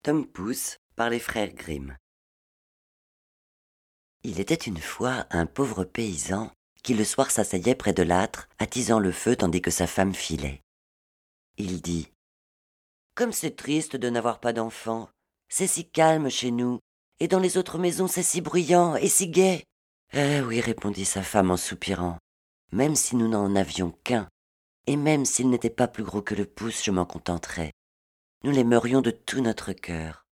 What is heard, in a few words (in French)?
Audiobook; TOM POUCE